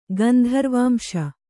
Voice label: "ಗಂಧರ್ವಾಂಶ", Kannada